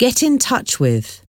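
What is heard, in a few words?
"get in touch with", English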